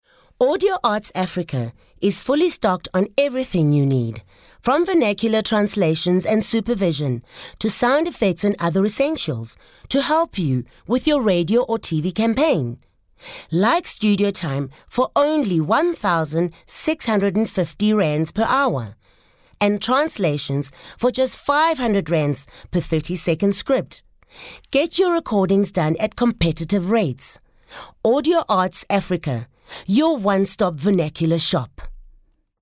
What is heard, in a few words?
English Medium Sell